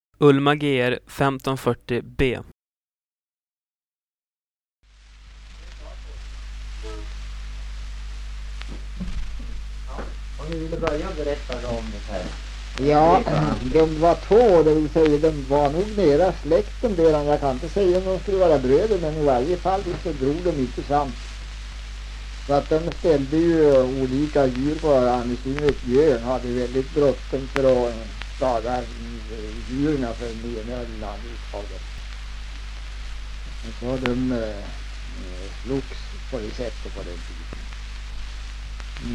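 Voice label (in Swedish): Ljustorp Medelpad, dialekter; Folkminnen; Svenska sagor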